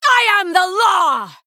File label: Female Night Elf Attack